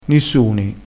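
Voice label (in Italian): "nissuni", dialettale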